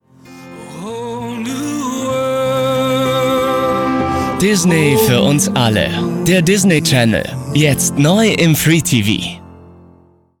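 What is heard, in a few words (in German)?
Spots OFF, Sprecher, Männer; DisneyChannel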